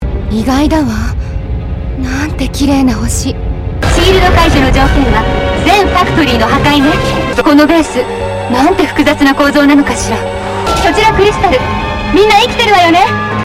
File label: Japanese; Assault; Aparoid Homeworld Outside